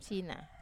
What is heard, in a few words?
"sina", Wamesa